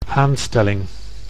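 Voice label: "aanstelling", Dutch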